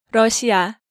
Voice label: "Reosia", Korean